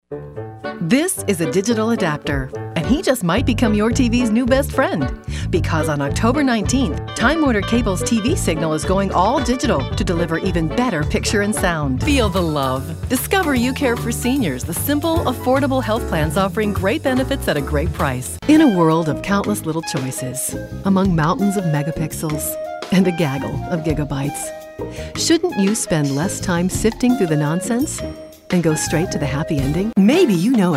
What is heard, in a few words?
Commercial